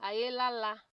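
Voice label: "ayelala", Nigerian Pidgin, female; pcm-ng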